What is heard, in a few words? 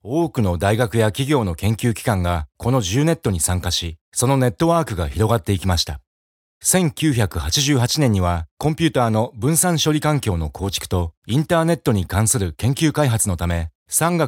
japanese male voice elearning